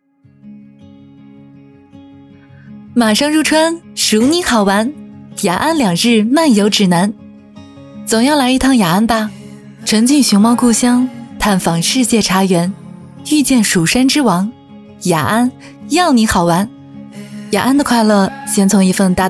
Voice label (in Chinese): 女 文旅; 雅安